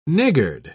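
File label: "niggard", British English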